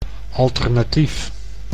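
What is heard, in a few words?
"alternatief", Dutch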